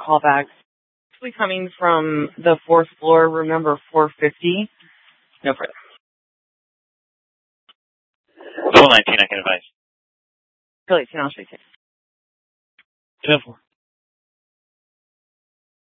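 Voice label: Scottsdale PD North Dispatch; Nth Dispatch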